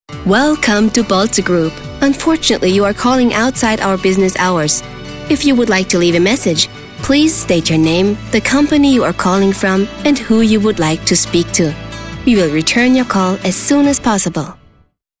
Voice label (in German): englische telefonansage